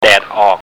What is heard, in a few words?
"daet ok", Thai